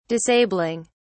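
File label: "disabling", British English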